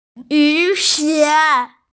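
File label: "yu xie", Mandarin Chinese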